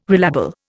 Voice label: "relabel", Indian